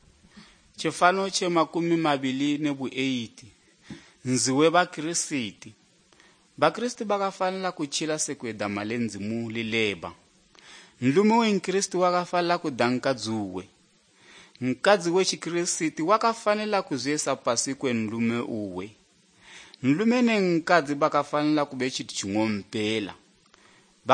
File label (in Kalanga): good news kalanga lilima, drama